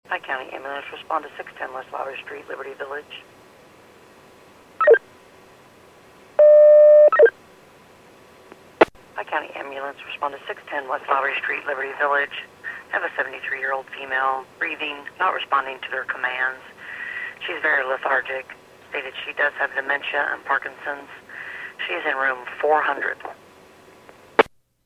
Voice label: PAGING